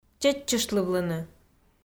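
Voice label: "чеччыштлывлыны", Komi, female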